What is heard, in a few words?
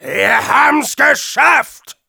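cheers, German; Demoman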